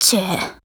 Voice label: LOWMOOD JP

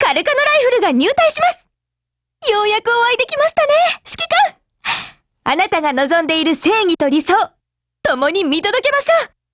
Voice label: GAIN, JP

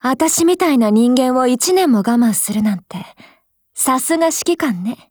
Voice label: NEWYEAR JP; Vector

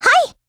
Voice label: AGREE, JP

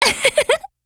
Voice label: MOOD, JP

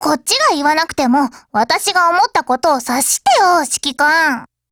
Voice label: DIALOGUE JP

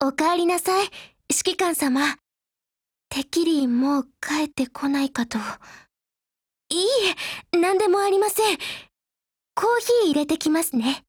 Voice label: HELLO, JP

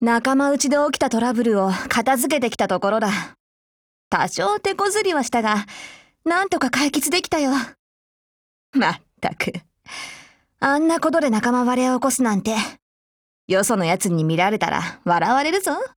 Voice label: DIALOGUE, JP

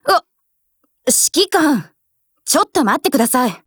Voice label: LOADING, JP

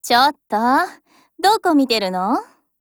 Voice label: DIALOGUE, JP

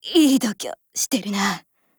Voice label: BREAK JP

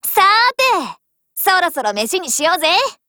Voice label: DIALOGUE JP

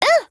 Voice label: AGREE JP; UMP9Mod

